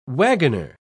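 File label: "waggoner", British English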